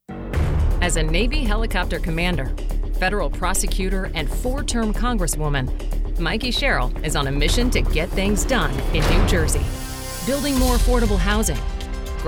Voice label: Voiceover, Commercial, Women; New York

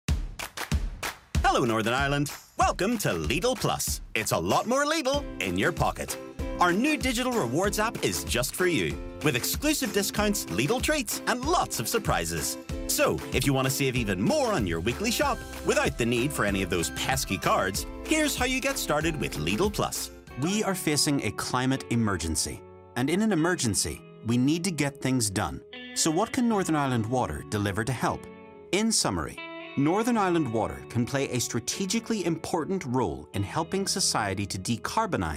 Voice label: voiceover, audio books; New York

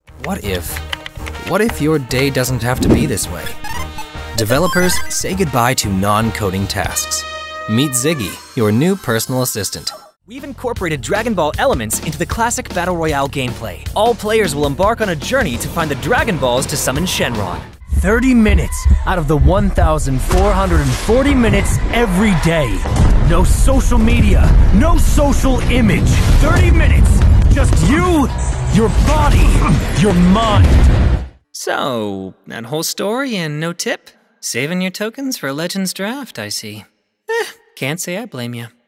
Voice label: Voiceover Commercial Men; New York; Commercial Demo

